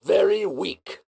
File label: very weak